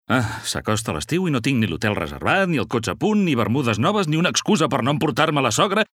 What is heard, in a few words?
male catalan car comercial; ideasonora